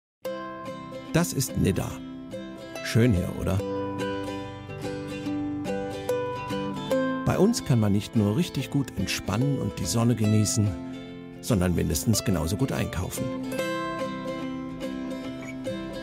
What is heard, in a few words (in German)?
werbung